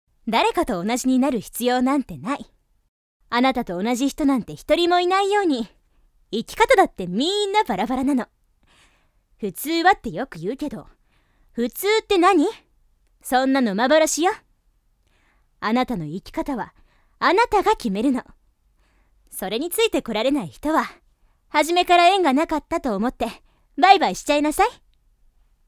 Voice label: woman; 女性; ボイスサンプル